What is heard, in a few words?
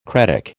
"cretic", American